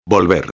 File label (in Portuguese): "volver", espanhol